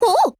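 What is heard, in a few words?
mood, Japanese; FNSPR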